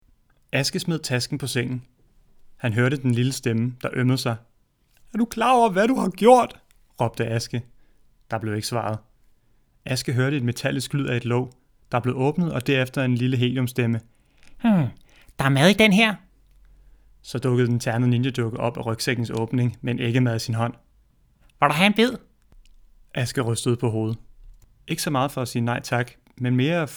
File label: Audio Book Danish; Ternet Ninja